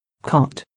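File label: "cot", English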